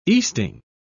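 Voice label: "easting", British English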